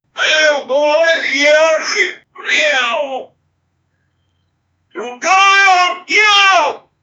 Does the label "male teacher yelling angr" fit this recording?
Yes